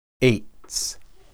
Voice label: tree, hebrew

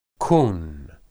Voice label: "kun", Hebrew

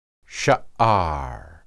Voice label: "shaar", Hebrew

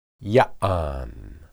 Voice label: "yaan", Hebrew

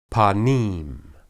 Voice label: "panim", Hebrew

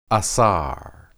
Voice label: "asar", Hebrew

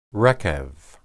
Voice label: "rekeb", Hebrew

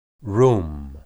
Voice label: "rum", Hebrew

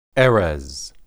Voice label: "erez", Hebrew

